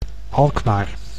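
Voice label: "Alkmaar", Dutch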